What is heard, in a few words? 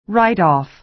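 "write-off", American English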